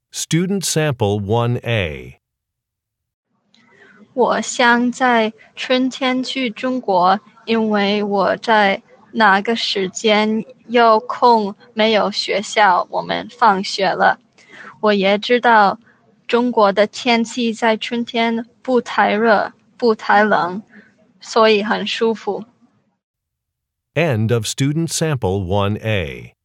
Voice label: chinese conversation